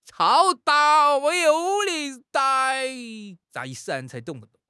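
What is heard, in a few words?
"chao3", Chinese; HSK4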